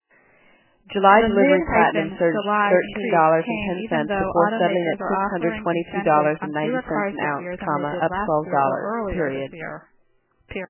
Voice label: female female mixture; deep clustering